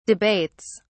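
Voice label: "debates", British English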